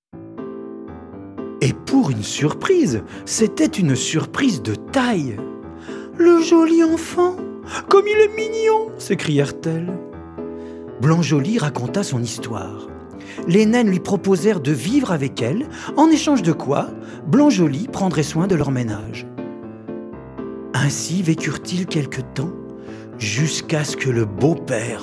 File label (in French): chanson narration